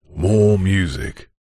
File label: radio prod male voice says more music processed